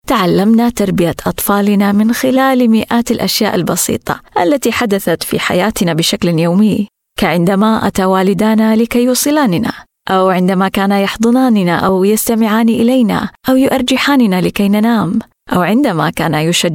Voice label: arabic voice over female elearning

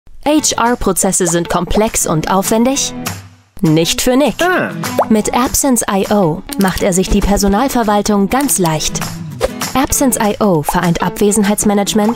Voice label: german voice over female narrator

